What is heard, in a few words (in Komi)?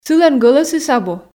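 "сылӧн гӧлӧсыс абу", Komi, female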